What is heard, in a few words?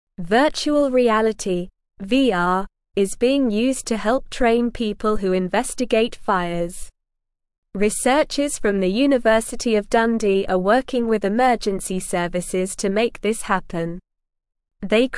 English Lower Intermediate SLOW Reading; Learning About Fires with Virtual Reality Fun; English Newsroom